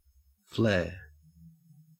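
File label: "flare", Australian English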